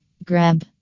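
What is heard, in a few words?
"grab", female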